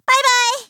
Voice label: "bye bye", Worms speechbanks; Hat Kid

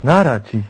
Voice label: "byebye", Worms speechbanks

